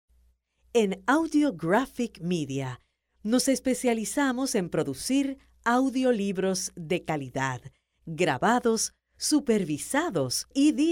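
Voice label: Spanish Latin America female narrative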